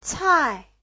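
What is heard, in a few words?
"cài", Mandarin Chinese; Giáo trình Hán ngữ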